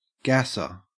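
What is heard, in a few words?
"gasser", Australian English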